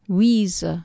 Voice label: "wise", Anglo Saxon

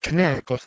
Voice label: "knakos", Doric Greek

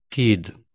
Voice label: "tid", Anglo Saxon

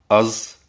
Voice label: "az" Ossetian

